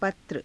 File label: "patra", Hindi; पत्र; [男]手紙